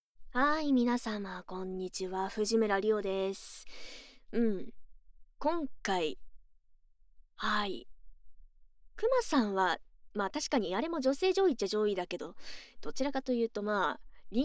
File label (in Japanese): フリートーク, ASMR